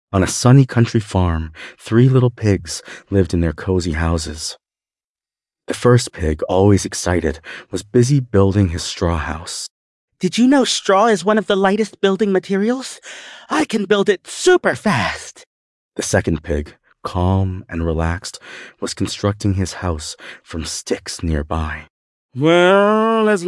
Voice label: male US story; Big Bad Wolf